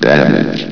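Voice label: "damage", male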